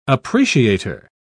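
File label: "appreciator", British English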